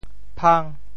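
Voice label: "phang5", Teochew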